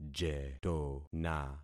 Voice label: "jetona", concat syllables; Affixing experiment